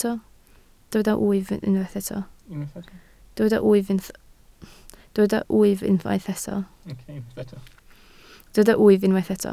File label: "wyf", Welsh